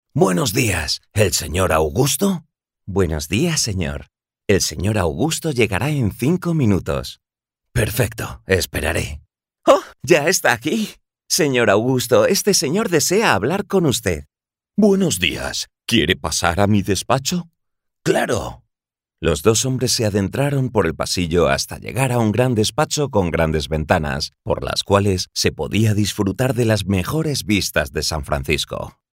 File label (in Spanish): Conversación